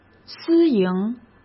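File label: "私营", Mandarin Chinese; chinese flashcards; hsk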